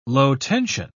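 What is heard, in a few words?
"low-tension", British English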